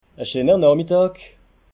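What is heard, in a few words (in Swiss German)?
"noch middag", Alsatian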